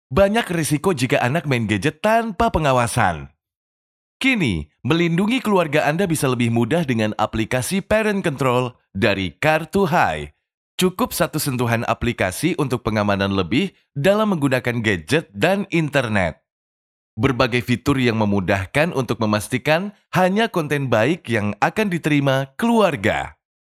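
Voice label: casual Indonesian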